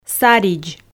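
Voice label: "саридз", Komi, female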